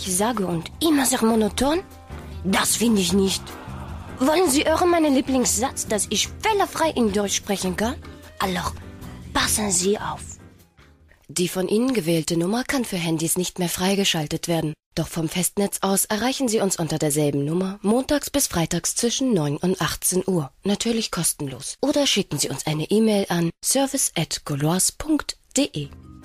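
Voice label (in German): französisch Dialekt Funk; Gaullouises; LOFT VOICES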